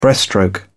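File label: "breaststroke", British English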